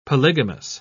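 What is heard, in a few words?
"polygamous", British English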